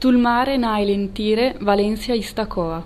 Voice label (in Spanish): quenya